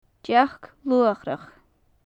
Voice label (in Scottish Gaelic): "dearc luachrach", gaelic; Faclan Nàdair